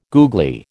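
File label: "googly", American English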